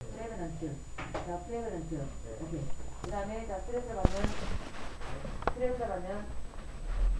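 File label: "also", spoken word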